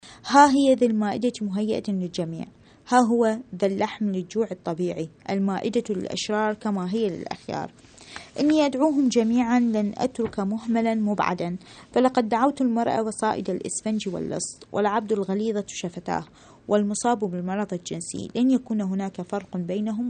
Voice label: arabic